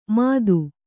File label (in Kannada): "ಮದು", Kannada